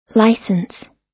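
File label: "licence", US English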